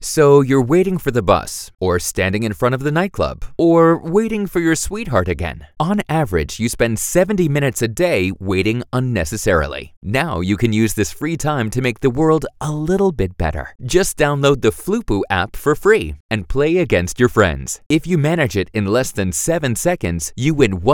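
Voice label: US English Dynamisch; Fremdtake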